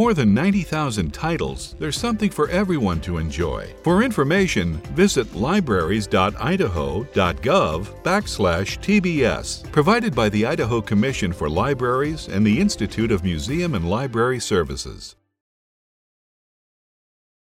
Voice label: Radio spot audio; TBS